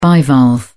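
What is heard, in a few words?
"bivalve", British English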